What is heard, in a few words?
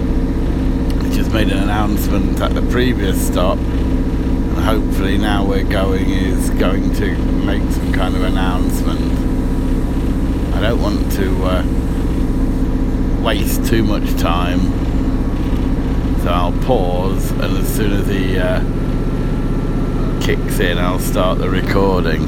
torquay train announcer sounds like a dj